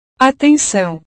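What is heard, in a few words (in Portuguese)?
"atencao", Portuguese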